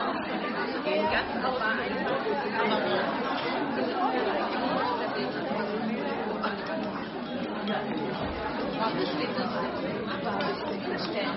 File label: Women Chatting